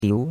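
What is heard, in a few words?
"diu2", Chinese; Cours-de-Chinois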